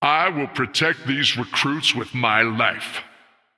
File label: Male Human After Freezing